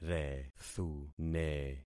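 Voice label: "rethune", concatenated syllables; Affixing experiment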